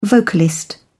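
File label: "vocalist", British English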